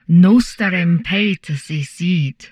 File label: humanoid portrait female; Stellaris; humanoids